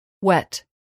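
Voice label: "wet", English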